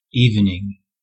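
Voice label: "evening", US English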